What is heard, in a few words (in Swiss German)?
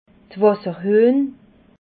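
"wasserhuehn", Alsatian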